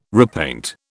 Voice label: "repaint", British

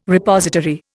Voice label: "Repository", Indian

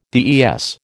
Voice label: "DES", American

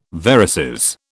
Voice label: "varices", British

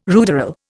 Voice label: "ruderal", Indian

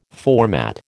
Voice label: "format", American

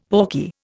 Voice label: "pawky", Indian; Dictionary

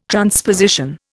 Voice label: "transposition", Indian

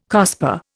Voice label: "COSPAR", Indian

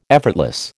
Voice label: "effortless", American

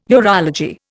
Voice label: "urology", Indian

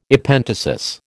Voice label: "Epenthesis", American

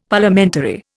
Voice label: "Parliamentary", Indian; Dictionary

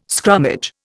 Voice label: "scrummage", Indian

